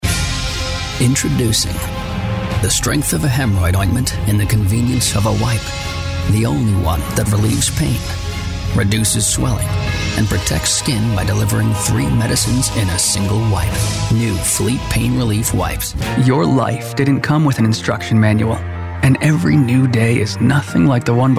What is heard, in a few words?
american accent, english male voices american accent